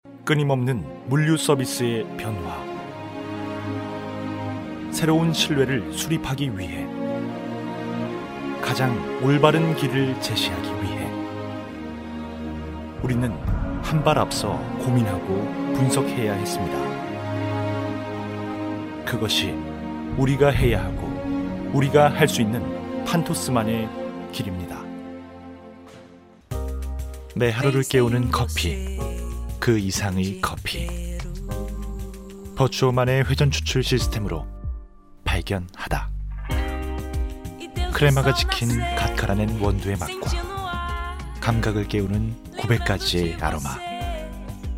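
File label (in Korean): 남성 (Male) 광고 나레이션 - 캐릭터; ARS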